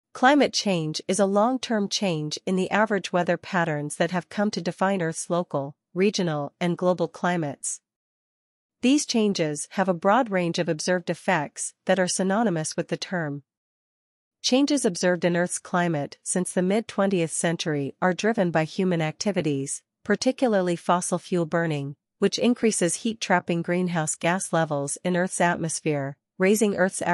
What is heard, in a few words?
Jenny US